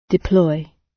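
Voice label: "deploy", British; Design and innovation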